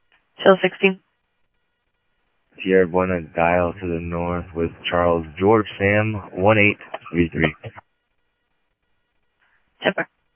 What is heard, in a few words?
Scottsdale Police, scanner audio; H-Scottsdale; SCTSDLE PD - PD2